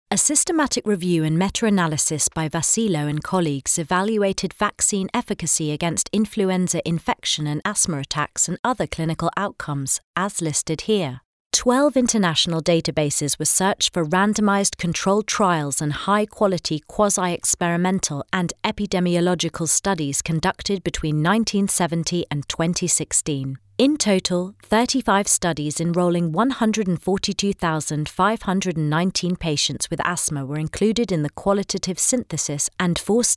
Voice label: Explainer Elearning